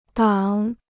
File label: "挡", Chinese